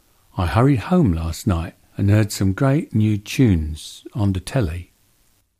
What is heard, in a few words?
Estuary